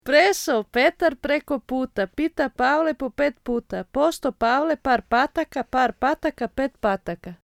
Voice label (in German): bosnisch